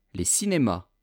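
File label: "les cinemas", French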